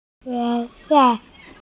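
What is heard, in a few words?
"ge2wai4", Mandarin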